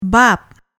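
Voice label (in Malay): "bab", Malay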